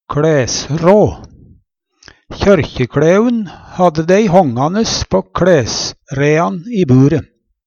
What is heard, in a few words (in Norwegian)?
kLæsrå, Numedalsmål